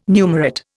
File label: "numerate", Indian